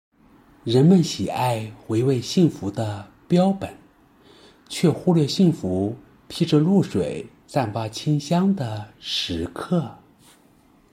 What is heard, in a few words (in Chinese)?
鸡汤 配音